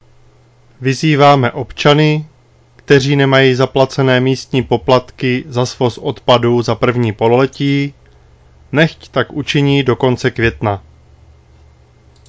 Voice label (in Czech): Výzva k platbě poplatků, rozhlas